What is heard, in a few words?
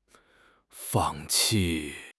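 "fang4 qi4", Mandarin Chinese; HSK; HSK4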